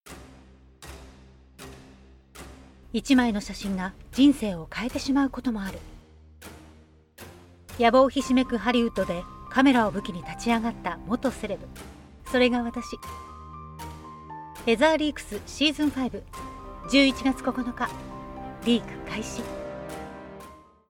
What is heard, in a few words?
Japanese Movie, Female